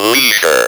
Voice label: "leisure", Speak & Spell